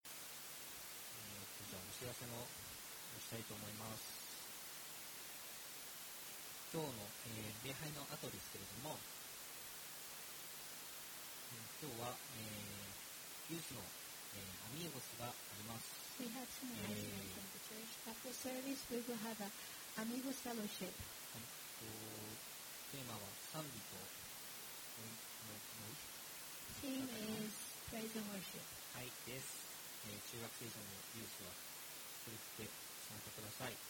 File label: announcement; sunday message 2020